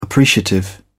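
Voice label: "appreciative", British English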